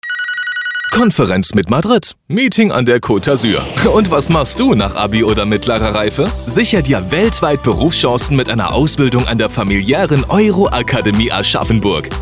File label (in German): spot; euro akademie; aschaffenburg